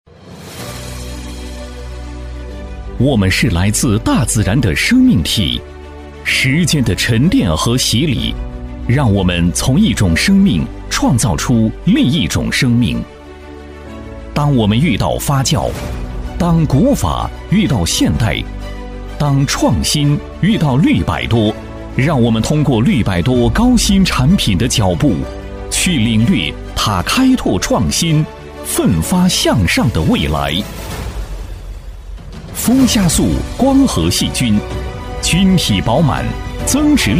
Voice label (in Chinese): 男, 产品宣传, 产品设备, 大气有力; 绿百多产品设备